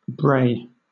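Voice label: "brae", British English; pronunciations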